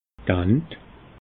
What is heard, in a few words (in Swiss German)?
"ant", alsace